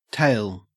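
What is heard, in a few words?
"tale", Australian English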